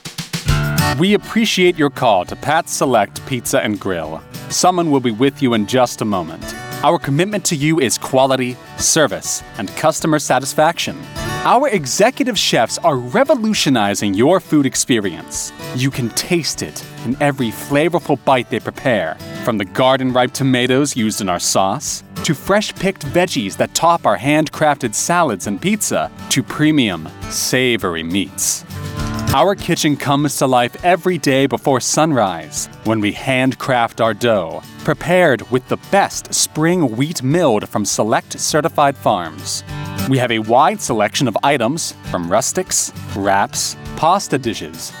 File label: American Male Voice, On Hold Messaging, Accent: General, Non-Regional Accent, Tone / Style: Bright, cheery, inviting; Voice-over demos